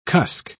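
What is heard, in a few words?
"cusk", British English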